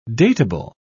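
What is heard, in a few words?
"datable", English, British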